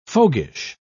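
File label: "fogyish", British English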